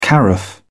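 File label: "carafe", English, British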